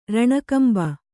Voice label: "ರಣಕಂಬ", Kannada

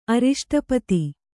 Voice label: "ಅರಿಷ್ಟಪತಿ", kannada

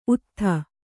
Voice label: "ಉತ್ತುತೆ", Kannada

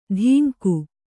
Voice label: "ಧಿಂಗು", Kannada

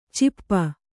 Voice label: "ಛಪ್ಪೆ", Kannada

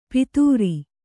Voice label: "ಪಿತೂರಿ", Kannada

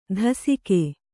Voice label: "ದಾಸಿಕೆ", kannada

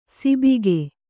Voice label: "ಸಿಬಿಕೆ", kannada

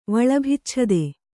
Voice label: "ವಳಭಿಚ್ಛದೆ", Kannada

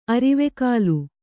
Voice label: "ಅರಿವೆಕಾಲು", Kannada

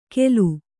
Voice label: "ಖೇಲು", Kannada

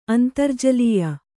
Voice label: "ಅಂತರ್ಜಲೀಯ", Kannada

